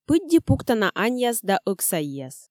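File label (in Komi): "пыдди пуктана айяс да ӧксаньяс", Komi, female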